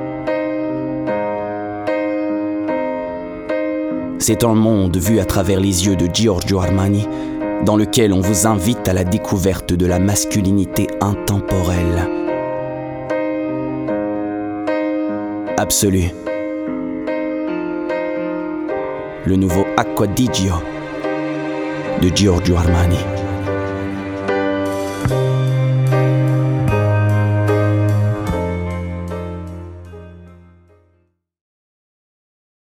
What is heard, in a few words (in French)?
parfum pour hommes annonceur personnage serieux fr normatif; acqua di gio